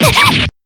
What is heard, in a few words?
damage, jp